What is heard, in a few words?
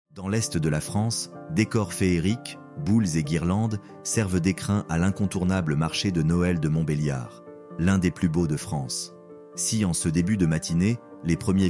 FR